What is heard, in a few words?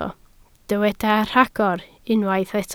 "rhagor", Welsh